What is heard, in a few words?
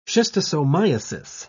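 "schistosomiasis", British English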